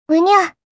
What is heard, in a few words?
Kawaii Voice, Unya; Memes